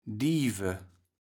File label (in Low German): "Diewe", kaevels platt